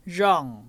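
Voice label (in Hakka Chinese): "rhong1", 客語 海陸腔; 秧; 羊; 養(文); 漾; 樣; •部分地區讀為rhong。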